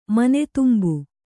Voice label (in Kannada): "ಮನೆದುಂಬು", Kannada